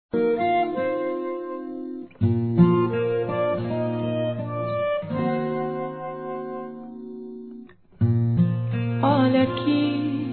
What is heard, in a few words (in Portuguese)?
EU SOU ASSIM, Românticas, fem